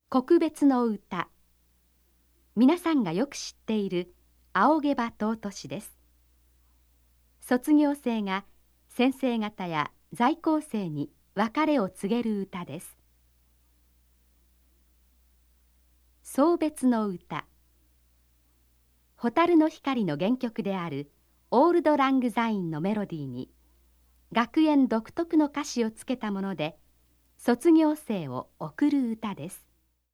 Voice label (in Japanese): ナレーション